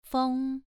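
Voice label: "feng", Mandarin Chinese, second tone, pronunciation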